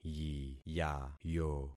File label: "yiyayo", concat syllables; Affixing experiment